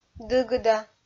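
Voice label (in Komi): "дыгыда", Komi, female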